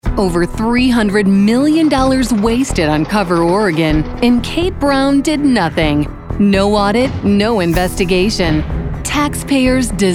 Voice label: women, political; Fresh, fun, sassy and real. High energy reads and natural smile.